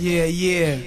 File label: yeah yeah, voice; ooh ahh